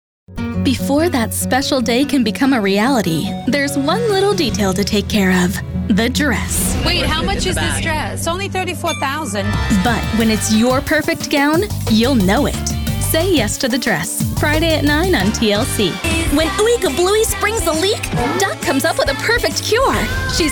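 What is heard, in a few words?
American English, advert, Variabel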